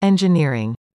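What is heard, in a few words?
"engineering", English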